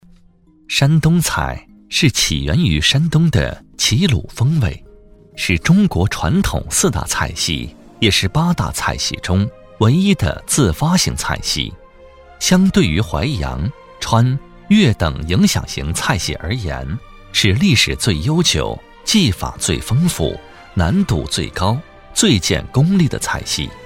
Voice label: 男 舌尖; 山东菜

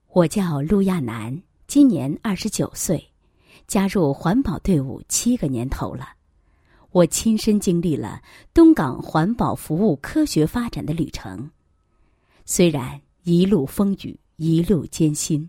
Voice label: 女 自白 居民自白; 环境整治

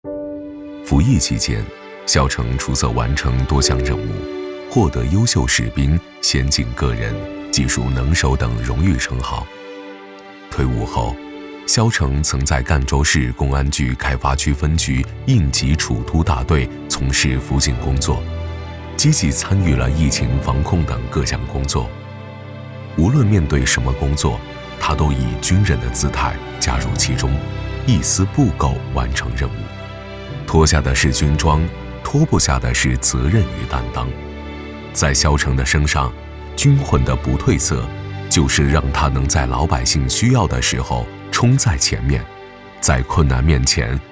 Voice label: 男 纪录片

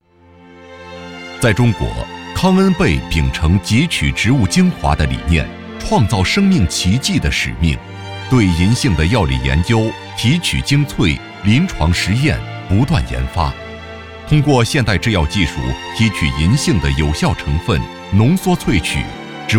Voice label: 男 企业专题; 康恩贝

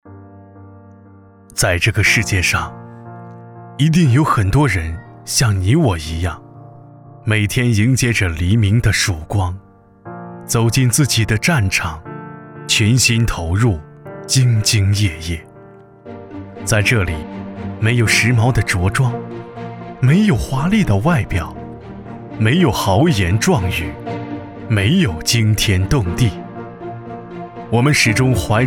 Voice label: 男 走心 旁白 感情

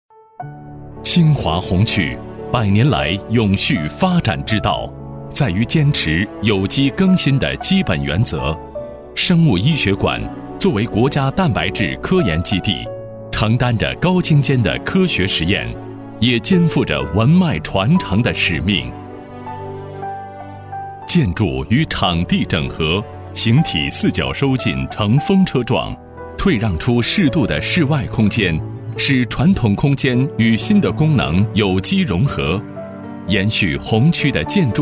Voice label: 男 场馆设计解说

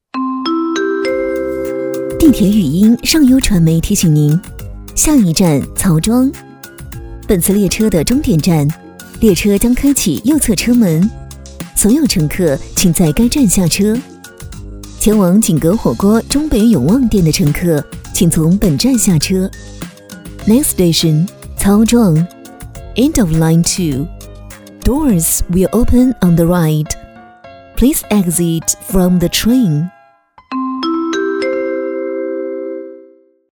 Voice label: 女 广播 地铁提示

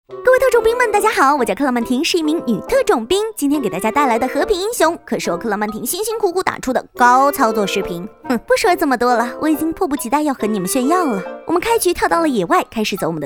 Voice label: 女 搞怪风格 吃鸡游戏解说

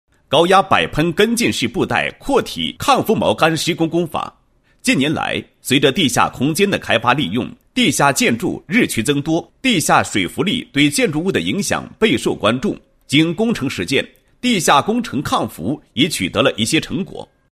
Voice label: 男27-工程解说; 高压摆喷抗拔锚杆工法解说

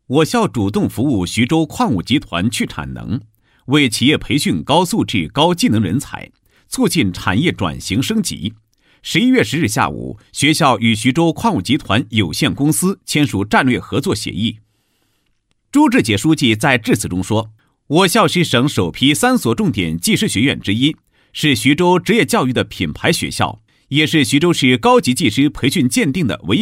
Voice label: 男, 学校宣传; 徐州职业教育的品牌学校宣传配音

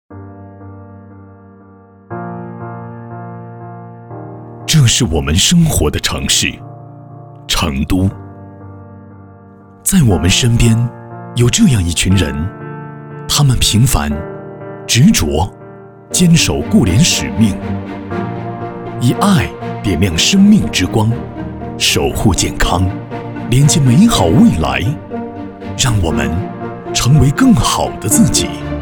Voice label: 男 旁白; 她们平凡

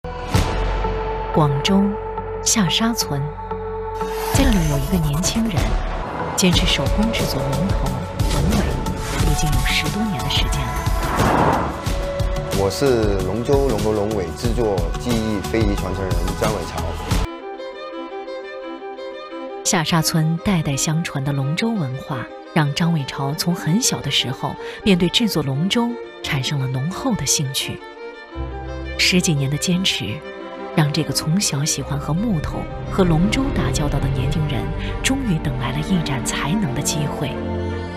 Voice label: 女 栏目配音; 中华龙舟大赛

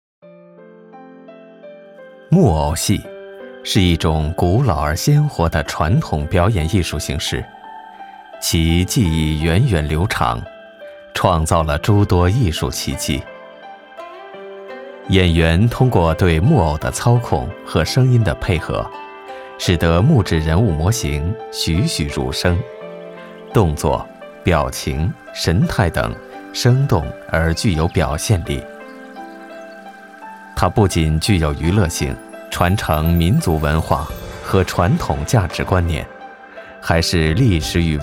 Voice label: 男 非遗讲述

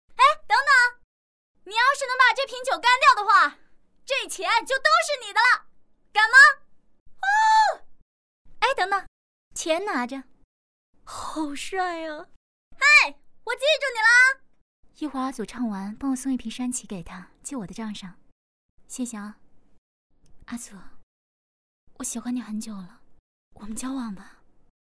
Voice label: 女 短剧台词 大胆女青年

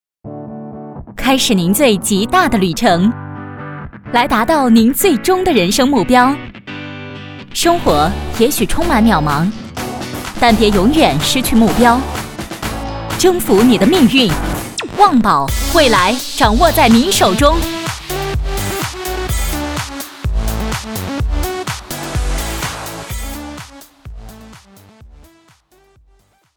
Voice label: 女 时尚; 旺宝广告

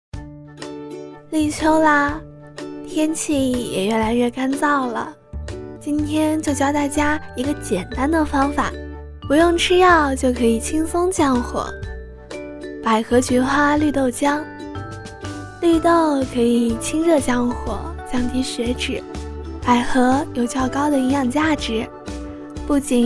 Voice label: 女 抖音做菜 懒样风格 素人音

